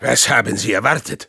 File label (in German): Spy cheers, de